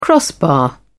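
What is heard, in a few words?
"crossbar", British English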